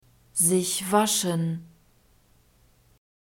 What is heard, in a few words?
"sich waschen", German; nouns declension accusative